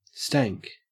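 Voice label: "stank", Australian English; pronunciations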